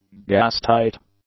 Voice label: "gas-tight", English; adjectives